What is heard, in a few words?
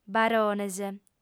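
"barones", female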